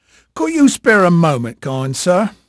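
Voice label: English male, voice; Pirates Of The Caribbean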